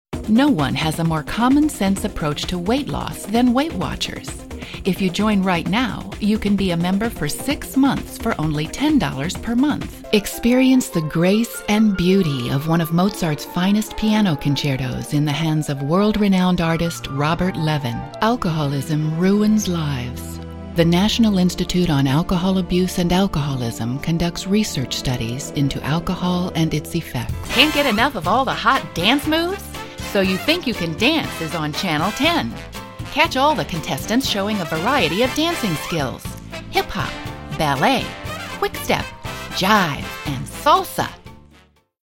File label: Spots